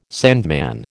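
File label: "sandman", American English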